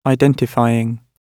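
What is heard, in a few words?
"identifying", British English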